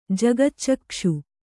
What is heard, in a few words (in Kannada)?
"ಜಗಚ್ಚಕ್ಕ್ಷು", Kannada